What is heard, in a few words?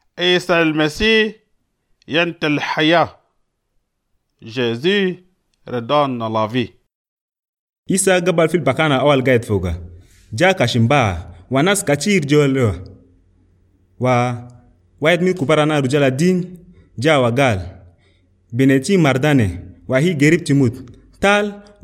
life, chadian arabic